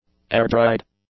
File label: "air-dried", English; adjectives